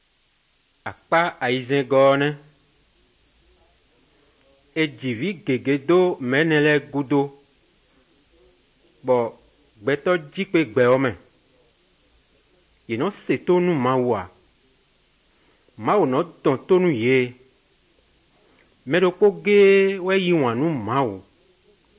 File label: good news, fon fongbe bible drama